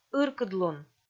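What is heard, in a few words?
"ыркыдлун", Komi, female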